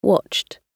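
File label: "watched", British English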